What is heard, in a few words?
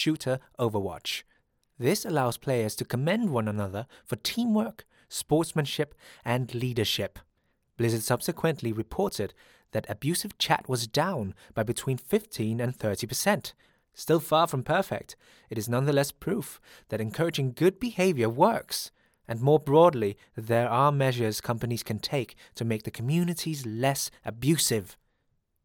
male english with slight danish accent documentary